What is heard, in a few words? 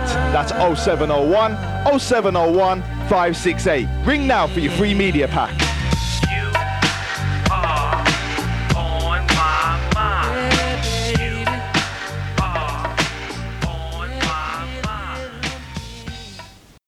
Advert